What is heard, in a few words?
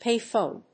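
"pay phone", English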